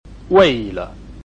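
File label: "wei4le5", Mandarin Chinese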